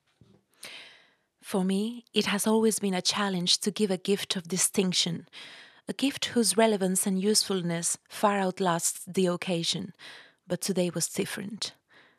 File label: female Galician and Spanish Castilian English with Castilian and Galician accent; Devine Voices